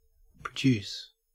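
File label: "produce", Australian English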